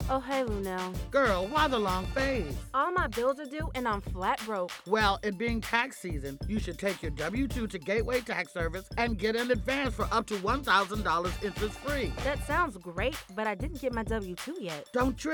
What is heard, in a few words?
RADIO SPOT NO BASS